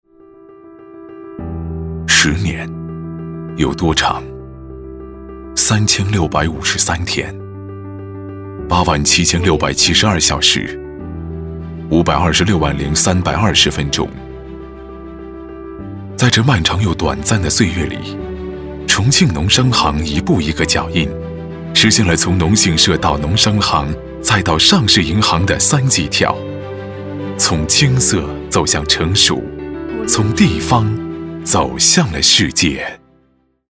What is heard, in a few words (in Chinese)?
专题 银行 温情; 重庆农商行